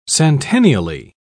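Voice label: "centennially", British English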